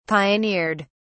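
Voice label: "pioneered", British English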